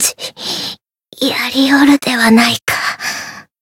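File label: Voice win barely, Japanese; leviachan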